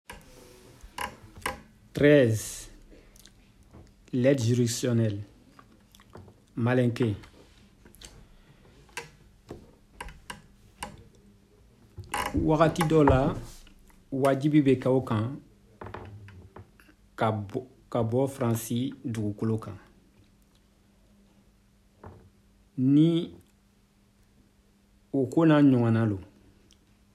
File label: malinke